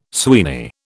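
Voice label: "Sweeney", British English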